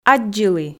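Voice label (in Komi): "аддзылі", Komi, female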